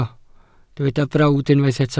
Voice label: "brawd", Welsh